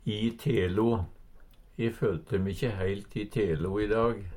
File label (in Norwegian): "i telo", Numedalsmål; i form; E følte me ikkje heilt i telo i dag.